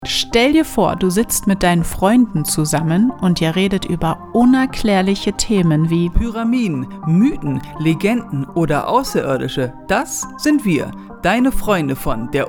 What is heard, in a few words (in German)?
Werbespot; Bild-Animation; derunerklaerlichepodcast